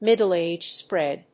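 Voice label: "Middle-Age Spread", English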